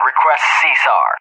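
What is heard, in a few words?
"Request CSAR", English, USA; DCS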